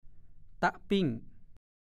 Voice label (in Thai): ตะปิ้ง, ภาษาโคราช